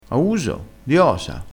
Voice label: "a uso", Crespinese

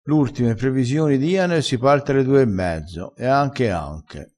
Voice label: "e anche", crespinese, vocabolario dialettale

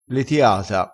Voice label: "letiata", dialettale crespinese

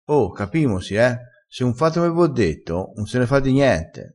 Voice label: "capimosi", crespinese

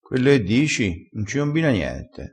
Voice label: "combina", crespinese

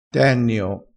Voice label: "tennio", vocabolario dialettale crespinese

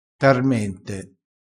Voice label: "tarmente", crespinese

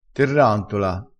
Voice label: "terrantola", vocabolario dialettale

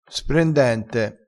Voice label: "sprendente", crespinese

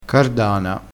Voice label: "cardana", crespinese

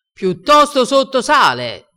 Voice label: "rivendila", crespinese

